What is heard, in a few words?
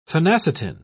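"phenacetin", British English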